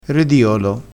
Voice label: "rediolo", crespinese

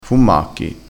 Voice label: "fummacchi", dialettale crespinese

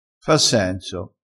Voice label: "fa senso", crespinese

